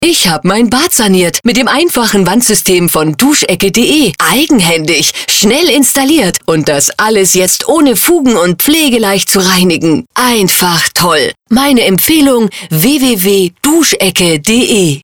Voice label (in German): Bauwesen Radiowerbung